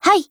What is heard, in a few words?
AGREE JP